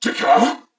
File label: Surprised, Corpus Venus Male; Dialog; Barks; Battle; Warframe; CorpusVenusMaleA